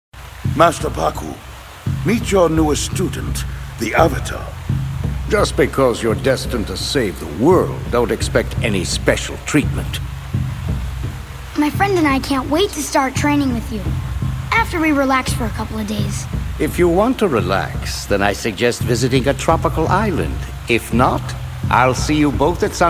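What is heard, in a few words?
animation, voices men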